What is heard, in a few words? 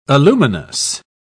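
"aluminous", British English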